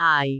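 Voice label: "aai4", Cantonese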